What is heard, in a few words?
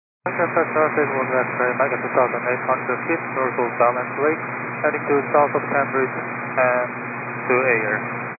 122 925MHz, scanner radio